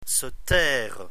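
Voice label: "se taire", French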